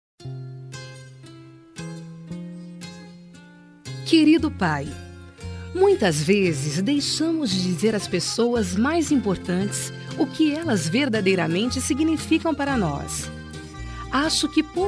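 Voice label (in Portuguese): pai feminina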